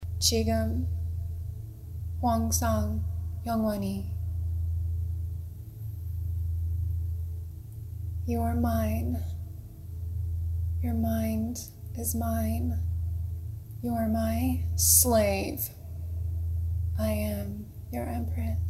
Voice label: Korean Voice